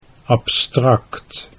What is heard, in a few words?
"abstrakt", Dutch